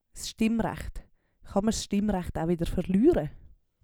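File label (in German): s Stimmrecht, Swiss German; Wortschatz; Hauptwortschatz; Wortschatz Kapitel 7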